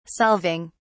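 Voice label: "solving", English, British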